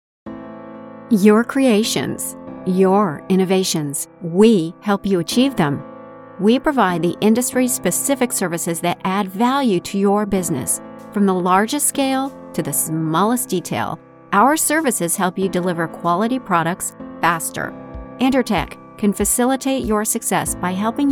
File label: with music; Intertek